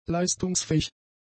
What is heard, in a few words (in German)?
"leistungsfähig", German; adjectives